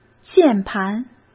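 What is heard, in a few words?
"键盘", Mandarin Chinese; hsk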